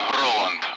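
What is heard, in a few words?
Speech Sound Russian Common Allied Flight; AirDefence; DCS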